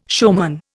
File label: "showman", Indian